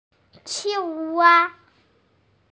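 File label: "qing wa", Mandarin Chinese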